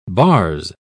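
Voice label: "bars", British English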